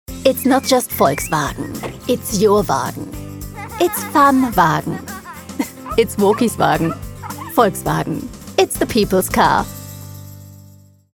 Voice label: Commercial UK; voiceover; VOLKSWAGON